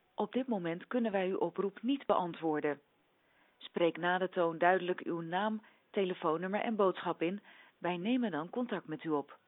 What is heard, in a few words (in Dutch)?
standaard voicemailbegroeting; Simmpl